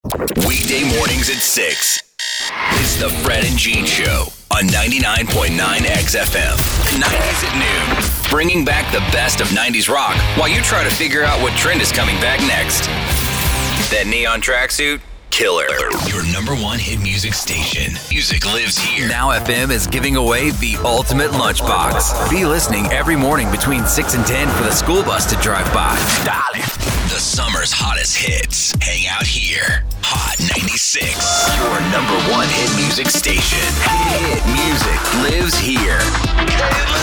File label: Multiformat Imaging